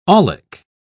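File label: "aulic", British English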